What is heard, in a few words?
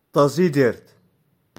"Tazidert", Touareg